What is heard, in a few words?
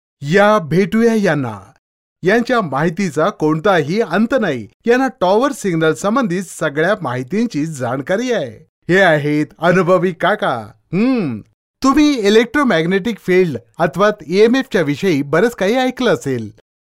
MARATHI MALE